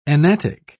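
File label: "enatic", British English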